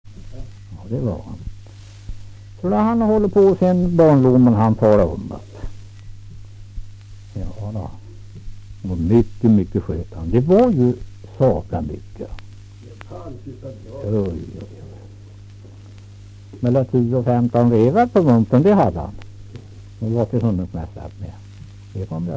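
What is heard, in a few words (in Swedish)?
Kräklinge Närke, dialekter, svenska sagor; Folkminnen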